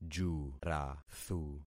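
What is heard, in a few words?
"jurathu", concatenated syllables; Affixing experiment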